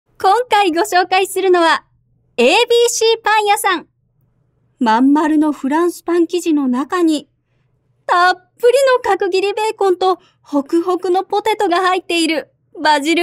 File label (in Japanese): パン屋 ナレーション